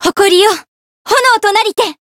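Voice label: variable chant, Japanese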